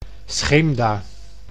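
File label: "Scheemda", Dutch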